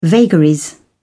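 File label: "vagaries", British English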